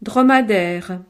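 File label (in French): "dromadaire", French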